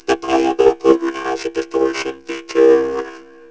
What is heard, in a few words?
distort, vocoder; The PAiA vocoder has a distortion feature